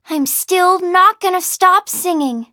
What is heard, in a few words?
defeat, en; Cookie Run